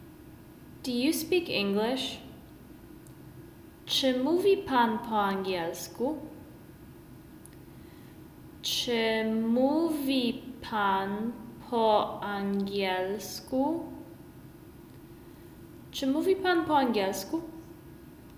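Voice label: Do you speak English Sir, Polish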